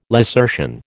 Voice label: "lacertian", American

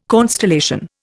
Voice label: "constellation", Indian

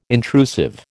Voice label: "intrusive", American

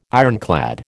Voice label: "ironclad", American

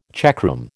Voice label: "checkroom", American

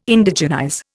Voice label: "Indigenize", Indian

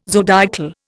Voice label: "zodiacal", Indian

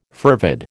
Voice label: "fervid", American

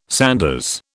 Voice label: "sanders", British

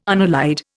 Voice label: "unallied", Indian

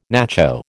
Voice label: "nacho", American

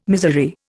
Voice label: "miserere", Indian

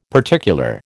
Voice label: "particular", American

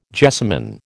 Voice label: "jessamin", American

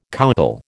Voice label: "coucal", American

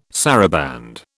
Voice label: "saraband", British

